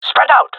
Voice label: request spread out, jungle male; player vo; Counter Strike Model Pack; CS:GO

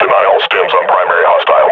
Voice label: player is hurt, grunt; Half Life Model Pack

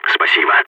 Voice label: thanks, agents, balkan

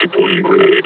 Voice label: announce attack grenade

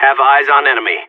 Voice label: radio enemy spotted; Counter Strike; CS:GO; seal

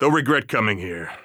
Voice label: radio lock n load; Counter Strike Model Pack; CS:GO; phoenix coop

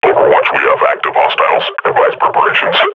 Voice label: combat idle, grunt; Half Life Model Pack

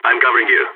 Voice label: covering friend, balkan; vo

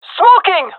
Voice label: throwing smoke, gendermerie fem; vo

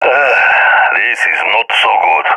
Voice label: scared; balkan epic

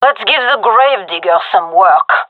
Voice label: round start, gendermerie fem; vo

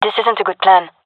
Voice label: disagree, gendarmerie fem epic; vo; agents

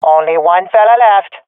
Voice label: enemies left one, swat fem; vo; Counter Strike Model Pack; CS:GO

